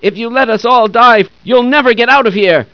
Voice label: nervous, hostage reactions; czero opforce